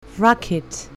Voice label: "rocket", English; colour english; means of transport